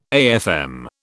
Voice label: "AFM", British

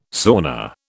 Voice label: "sauna", British

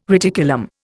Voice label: "reticulum", Indian

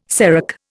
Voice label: "serac", Indian

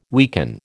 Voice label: "weaken", American

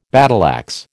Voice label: "battleaxe", American

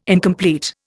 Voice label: "incomplete", Indian

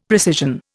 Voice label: "precision", Indian; Dictionary

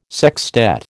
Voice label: "sextet", American

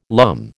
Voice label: "lumme", American

